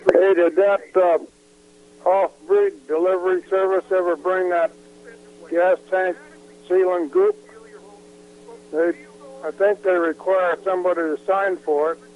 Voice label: voicemail